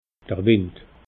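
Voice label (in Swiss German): "wind", Alsatian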